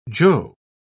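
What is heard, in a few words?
"joe", British English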